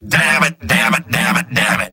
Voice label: engineer negative vocalization; tf2; mvm